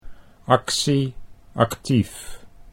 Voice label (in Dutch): aktie aktief, Dutch